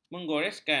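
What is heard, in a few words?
"menggoreskan", Malay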